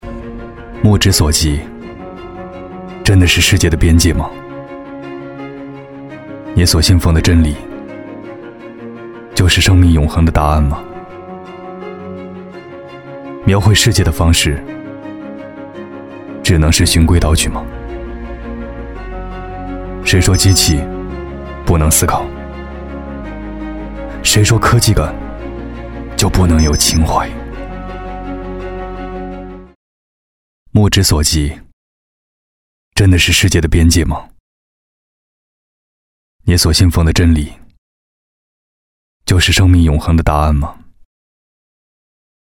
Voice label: 男 品牌广告; oppo广告

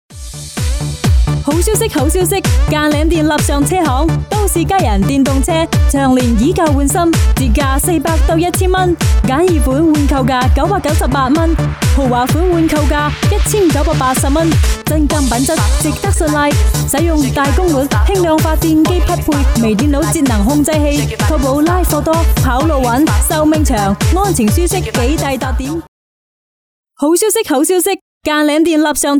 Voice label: 英女, 粤语促销

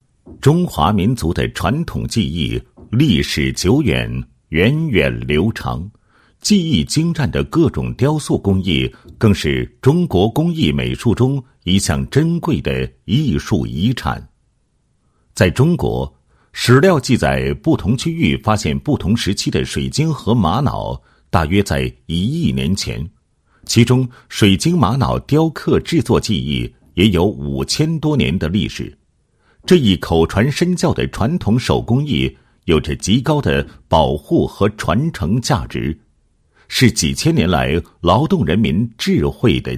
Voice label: 男 解说词; 水晶玛瑙制作技艺录像片

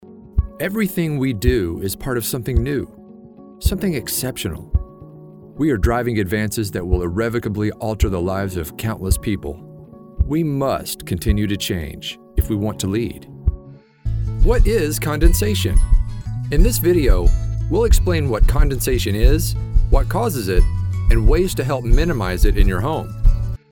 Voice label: 外籍英男 普通叙述风格

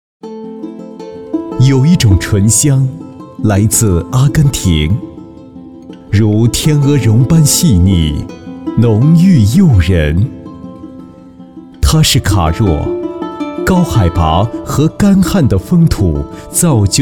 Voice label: 男 广告 葡萄酒, 国语男声配音

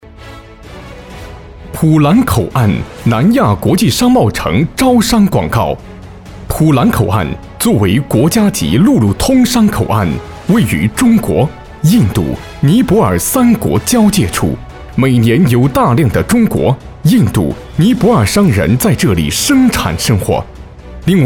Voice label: 招商广告, 大气口岸

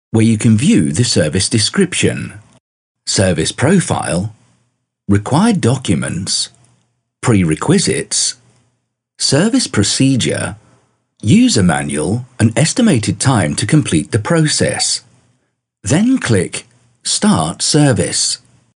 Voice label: 英男, 产品操作

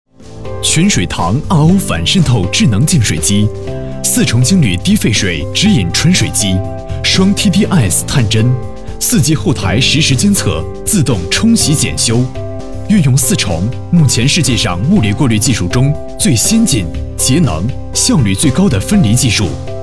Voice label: 男 产品功能介绍

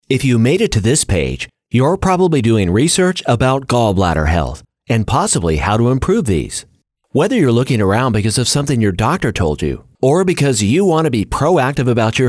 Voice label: 外籍英男 干音 产品介绍

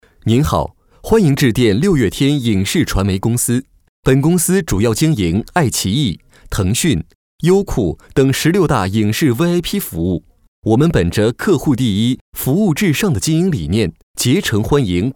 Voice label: 男,彩铃,商务温馨

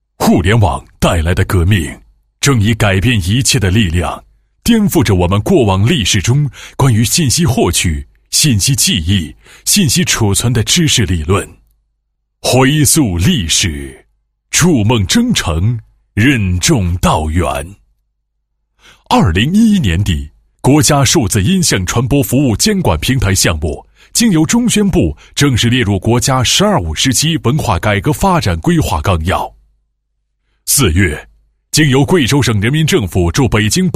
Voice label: 男 解说专题 解说词; 版权云项目

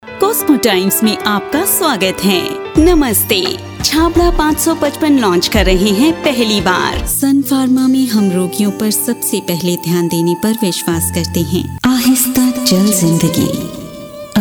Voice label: 外籍印女 多种风格 混剪

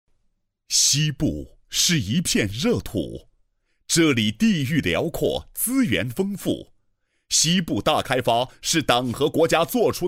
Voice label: 男 宣传片 宣传片解说; 华电金沙江上游水电开发有限公司

